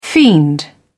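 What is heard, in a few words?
"fiend", English, British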